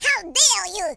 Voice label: "coward", French; speech